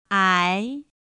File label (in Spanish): "ái", Chino